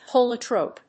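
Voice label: "polytrope", English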